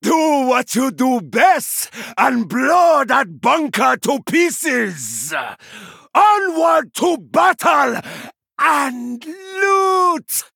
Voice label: Male Troll

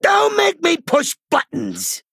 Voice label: Male Goblin Threaten

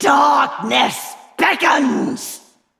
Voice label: Female Val'kyr TRIGGER MORTAL STRIKE

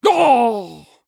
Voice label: Male Human Death

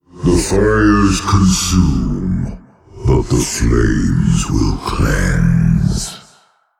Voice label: Male Old God; FIRE FESTIVAL

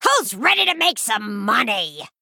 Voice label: Female Goblin Play

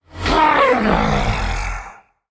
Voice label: Male Qiraji Death

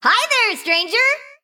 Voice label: Female Mechagnome Emote Greetings

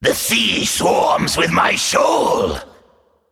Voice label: Male Naga Play

